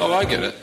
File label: "oh i get it", male; Voice